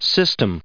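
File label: "system", US English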